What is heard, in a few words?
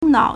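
"nao3", Mandarin Chinese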